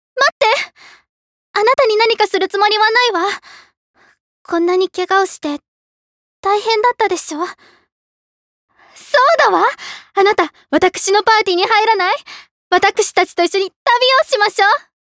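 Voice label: rich young lady, Japanese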